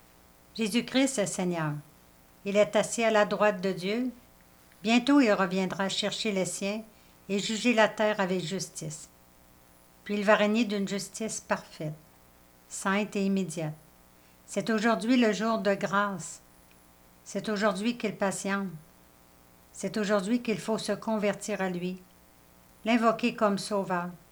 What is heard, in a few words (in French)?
narration et lecture; Cantate : Christ notre Pâque; Pâques